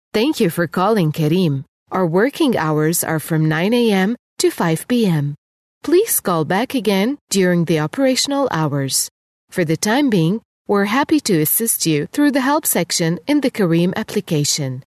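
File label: Arabic, female, English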